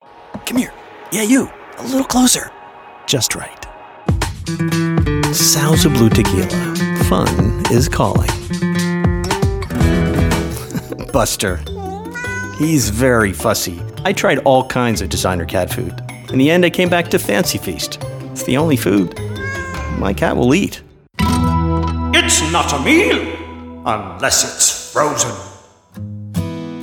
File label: Commercial Demo